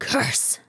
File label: curse, RPG Voice; RPG Voice Starter Pack